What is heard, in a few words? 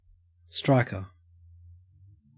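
"striker", Australian English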